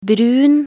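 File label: "brun", Norwegian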